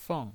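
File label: "feng" Mandarin Chinese, fourth tone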